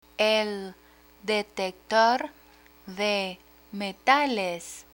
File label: "el detector de metales", Spanish